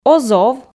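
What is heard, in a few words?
"оз ов", Komi, female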